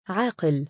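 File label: "3aaqil" male, Arabic; アーキル; 知性ある、理性ある、理性的な 、分別がある、賢い（人）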